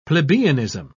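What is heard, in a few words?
"plebeianism", British English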